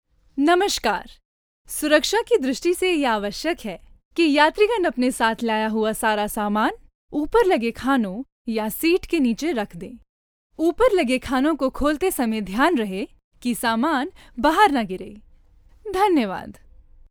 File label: inflight, hindi